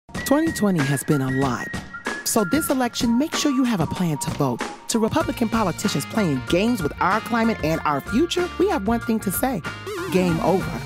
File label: women political